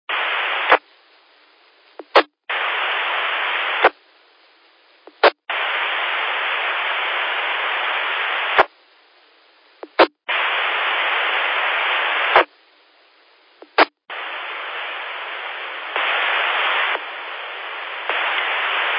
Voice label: qso rec repeater logic